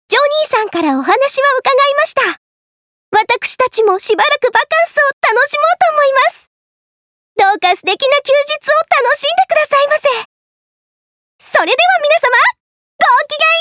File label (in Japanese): 少女